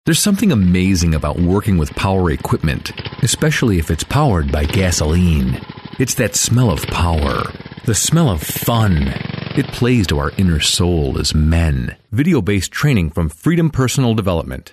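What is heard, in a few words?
English, American, male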